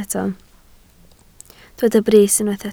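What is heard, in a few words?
"brys" Welsh, spoken word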